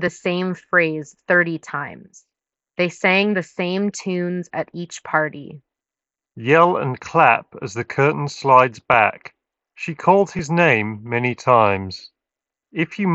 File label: JLab EPIC SPORT ANC3 Earbuds ideal